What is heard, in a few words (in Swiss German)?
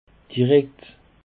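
"direkt", Alsatian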